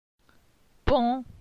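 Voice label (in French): "Pon", French; phonetique; la syllabe on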